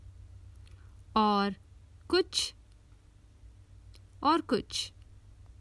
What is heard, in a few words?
Anything else, Common Hindi Phrases for travel